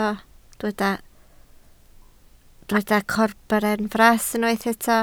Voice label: "corbenfras", Welsh